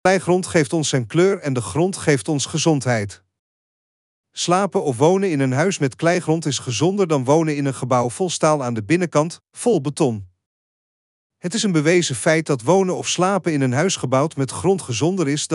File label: Dutch